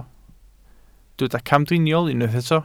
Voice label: "camdriniol", Welsh